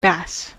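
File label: "bass" fish, US English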